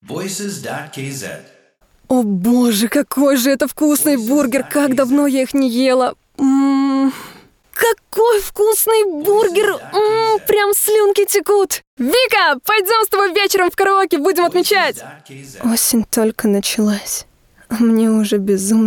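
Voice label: Russian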